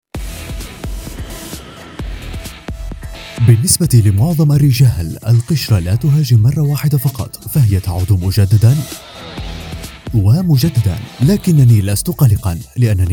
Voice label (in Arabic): تعليق صوتي